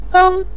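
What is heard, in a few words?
"zeng" neutral tone, Mandarin Chinese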